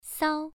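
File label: "sao" Mandarin Chinese, first tone, pronunciation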